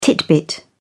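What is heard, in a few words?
"titbit", British English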